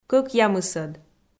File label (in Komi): "кӧкъямысӧд" Komi